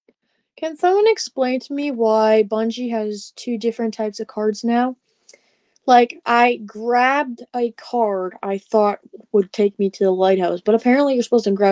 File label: voice message; Discord; Outcast Gaming